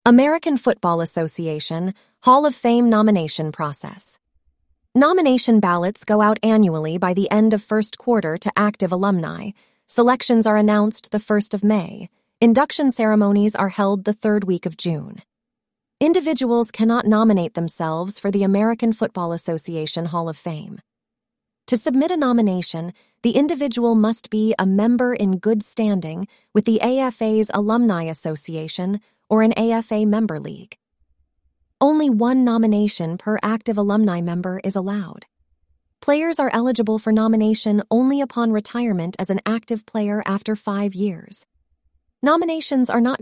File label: synthesized audio; Hall of Fame Process Description Audio; AMERICAN FOOTBALL ASSOCIATION HALL OF FAME NOMINATION PROCESS